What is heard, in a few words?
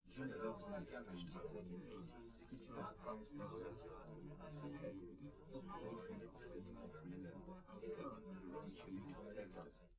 male live rec